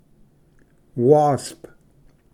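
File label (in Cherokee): "wasp", Cherokee word, male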